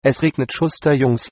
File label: rain, de